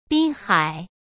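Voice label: "滨海", Mandarin Chinese; chinese flashcards; hsk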